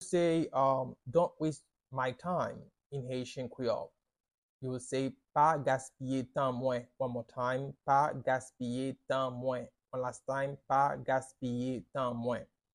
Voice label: Pa gaspiye tan mwen, Haitian Creole pronunciation by a Haitian teacher